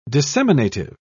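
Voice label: "disseminative", British English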